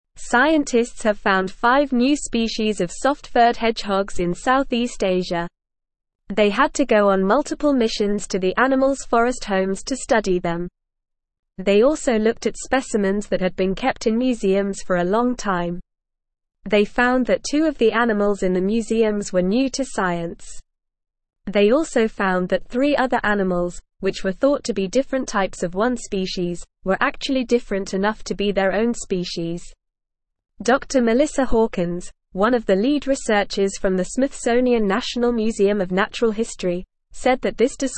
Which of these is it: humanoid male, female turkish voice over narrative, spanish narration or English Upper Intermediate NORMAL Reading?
English Upper Intermediate NORMAL Reading